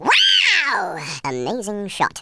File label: "amazing", wacky speech